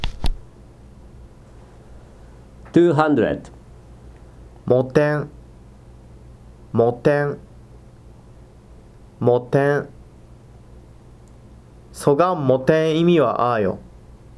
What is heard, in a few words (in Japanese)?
"moteN", hogen